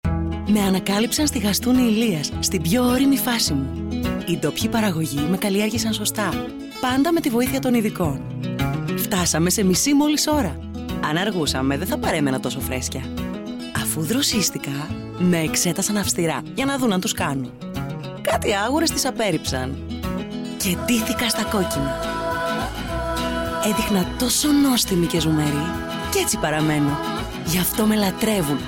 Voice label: GREEK; Pummaro

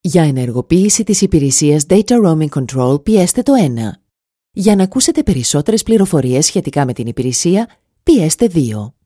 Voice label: IVR; Vodafone

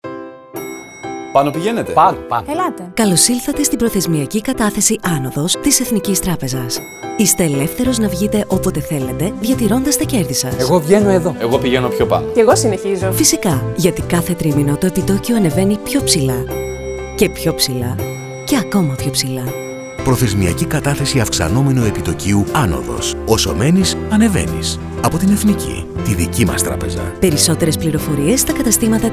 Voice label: corporate

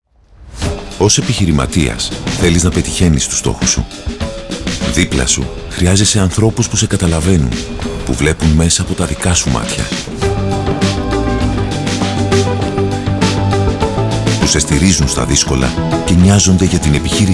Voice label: TV SPOT; Cyta Business

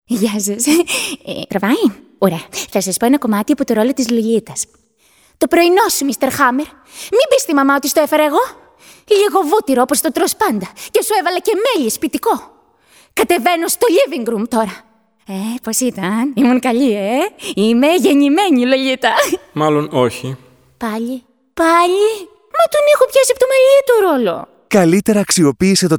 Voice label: character young adult

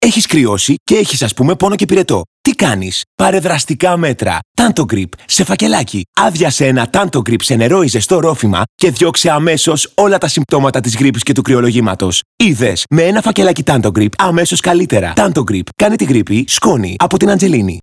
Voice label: TVC; Tanto Grip

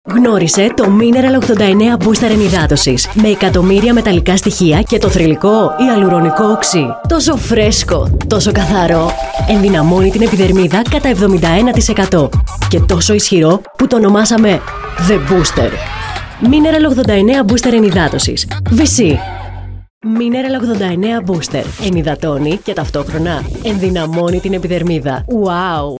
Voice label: Commercial

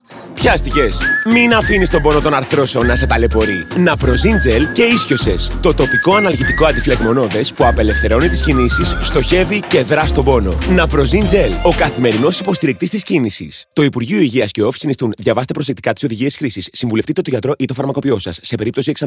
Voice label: Radio; Naprosyn Gel

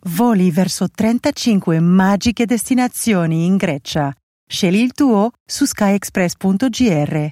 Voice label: ITALIAN; SKY EXPRESS

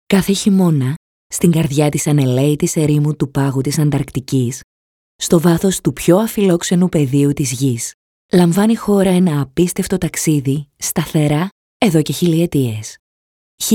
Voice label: Documentary

